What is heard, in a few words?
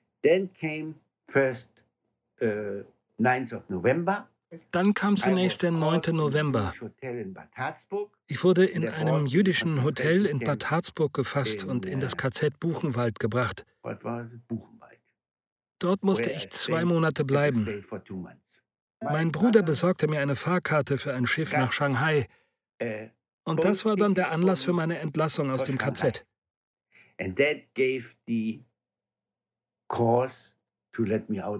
Voice Over Beispiel; LOFT VOICES